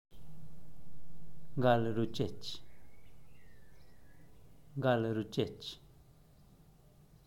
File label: "gal ruchech", zapoteco teotitlan